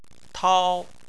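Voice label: "tao", Chinese, first tone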